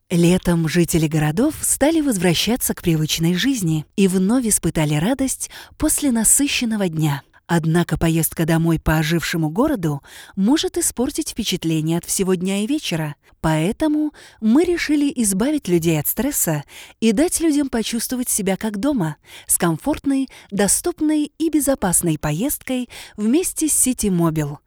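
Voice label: presentation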